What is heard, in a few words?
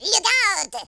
incoming, speech, French